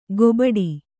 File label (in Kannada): "ಗೊಬಡಿ", Kannada